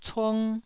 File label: "cung1", taiwanese